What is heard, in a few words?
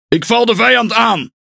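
Speech Pilots Torpedo Engage, Dutch; World Of Warships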